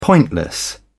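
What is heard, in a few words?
"pointless", British English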